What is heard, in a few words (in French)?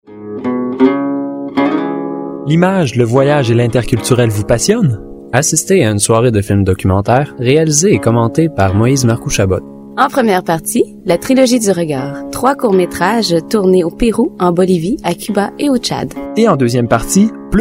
pub radio soiree